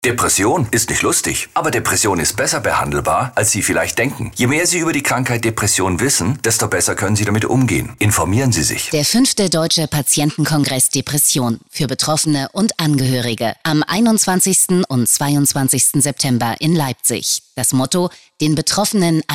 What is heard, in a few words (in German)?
radiospot; Harald Schmidt; patientenkongress 2019